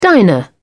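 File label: "diner", British English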